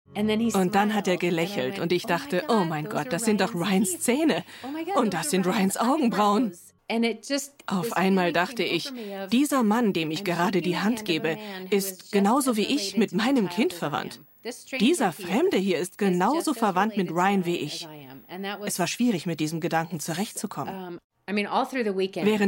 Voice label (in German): Voice Over Doku, sprecherin